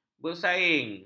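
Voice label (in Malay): "bersaing", Malay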